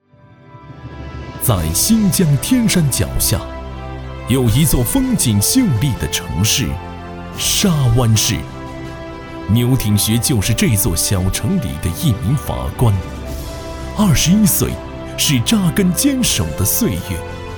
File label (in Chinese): 人物颁奖大气